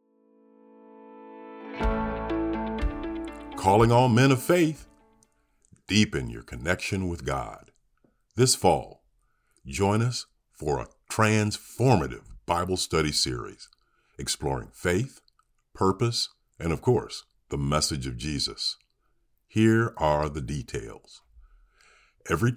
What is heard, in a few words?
Mens Announcement